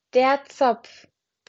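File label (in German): "Zopf", German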